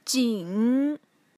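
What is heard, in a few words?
"jing", Mandarin, third tone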